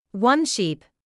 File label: "one sheep", English